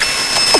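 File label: robot joke; HIGHTECH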